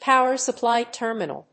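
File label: "power supply terminal", English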